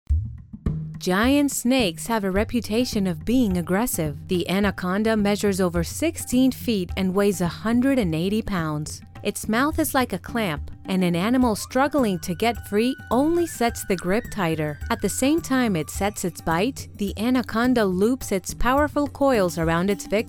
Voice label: english narration, mexican spanish voice actors; zweisprachiger Synchronsprecher in Englisch und Spanisch; Erzählung

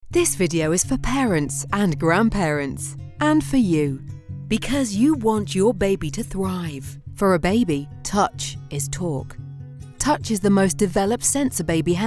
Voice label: english british e-learning

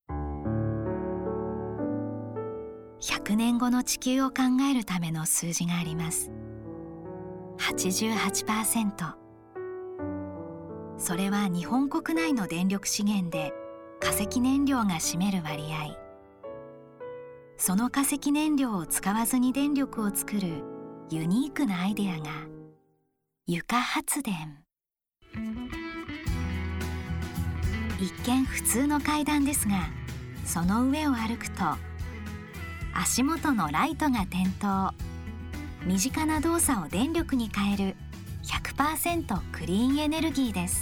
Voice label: japanese documentaries

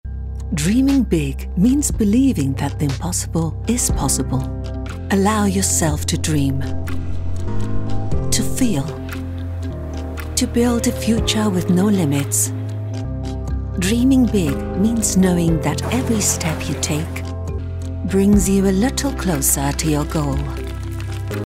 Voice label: english british

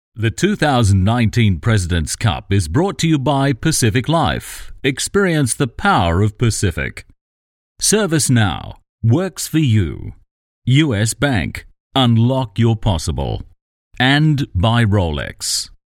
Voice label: english australian tv shows

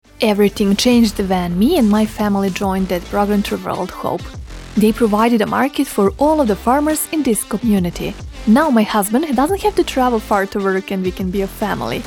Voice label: english promos

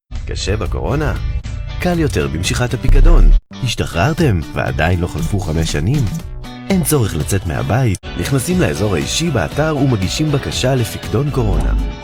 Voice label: Hebrew e-learning

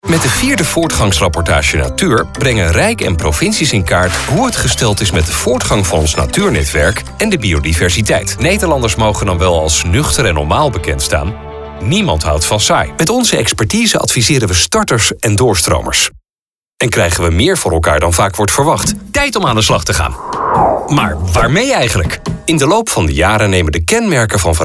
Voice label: dutch corporate videos

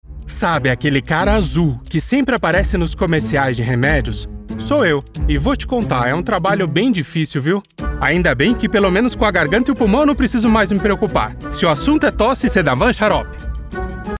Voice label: portuguese brazilian promos

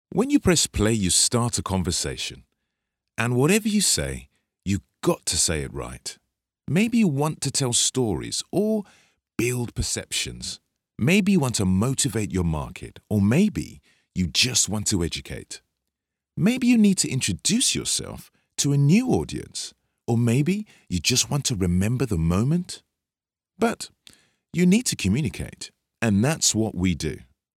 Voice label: english british promos

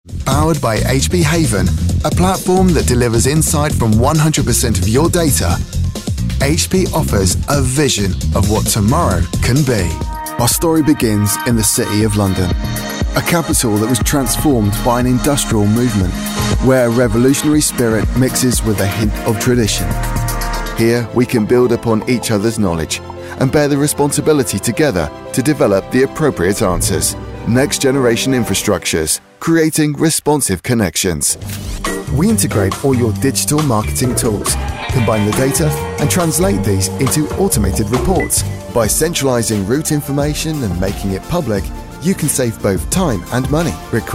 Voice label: English British corporate videos

